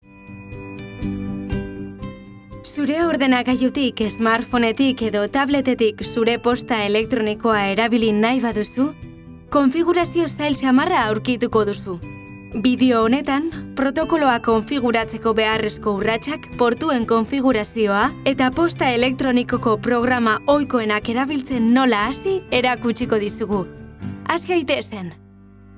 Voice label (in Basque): ELEARNING EUSK KONFIGURAZIOA